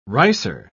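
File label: "ricer", British English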